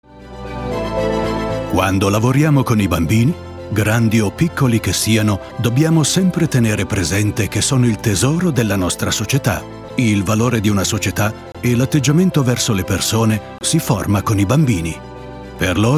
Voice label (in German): Imagefilm, Narrative, Presentation, Tale (Erzählung), Italian; German